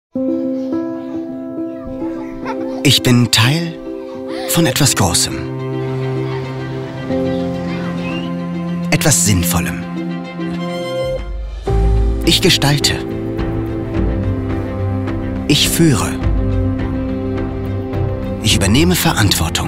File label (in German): sprachprobe off socialspot kita